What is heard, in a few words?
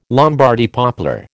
"Lombardy poplar", American